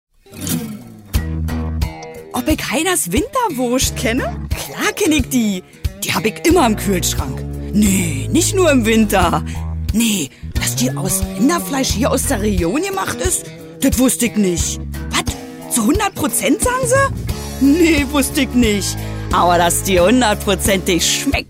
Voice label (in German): werbung berlinerisch